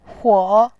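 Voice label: "huo", Mandarin, third tone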